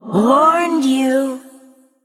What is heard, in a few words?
Female Undead Attack